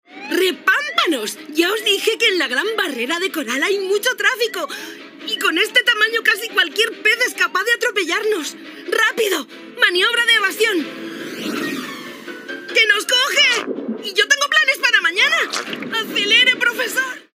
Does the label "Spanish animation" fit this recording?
Yes